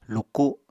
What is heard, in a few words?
"luku", Apatani